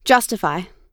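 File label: "justify", American English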